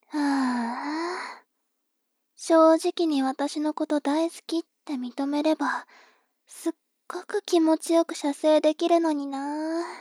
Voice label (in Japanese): 妹 台詞